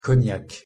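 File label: pronunciation french cognac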